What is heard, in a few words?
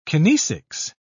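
"kinesics", British English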